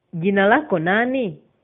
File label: name swahili